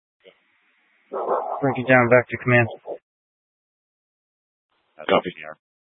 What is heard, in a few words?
Incident Tac 3, scanner